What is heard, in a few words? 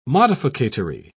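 "modificatory", British English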